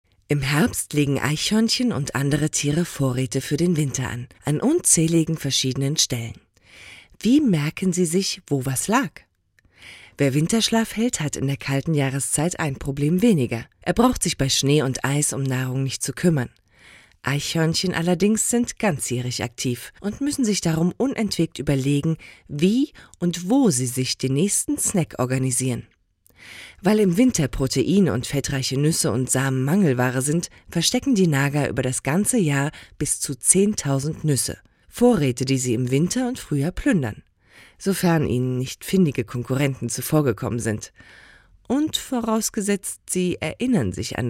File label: VoiceOver; Eichhörnchen